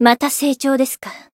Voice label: growth, jp; niike